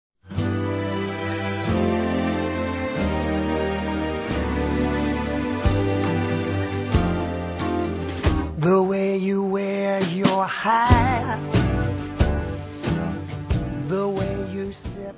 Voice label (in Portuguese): Faça com Amor Masculino; Telemensagens; Otimismo